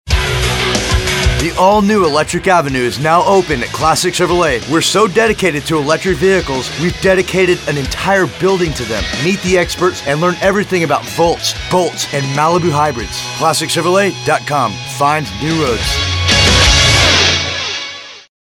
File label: Radio Spot